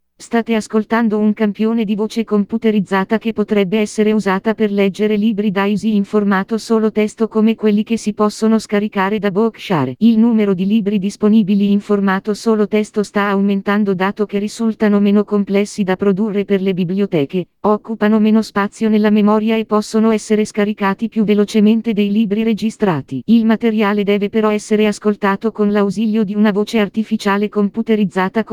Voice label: Italian Female; Silvia